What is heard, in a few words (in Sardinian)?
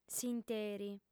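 "s'interi", female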